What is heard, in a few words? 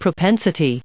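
"propensity", us English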